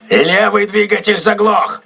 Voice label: voice message left engine damaged, crew ships russian